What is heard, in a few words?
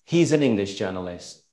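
Voice Acting, Spanish, male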